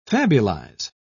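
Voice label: "fabulize", British English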